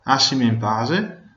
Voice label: leave me alone, Venetian

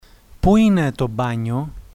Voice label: "toilet", Greek

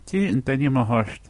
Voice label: name, gaelic; Scottish Gaelic

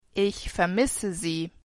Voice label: i miss you, de

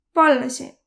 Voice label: please, Võro

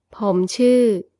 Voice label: my name is, thai

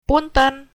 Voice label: excuse me, sundanese

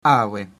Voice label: "hello", Latin

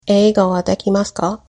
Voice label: do you speak english, japanese

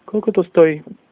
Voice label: how much, slovak

